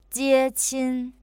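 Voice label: "jieqin", Chinese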